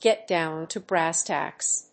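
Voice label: "get down to brass tacks", English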